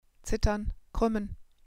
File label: "zittern", german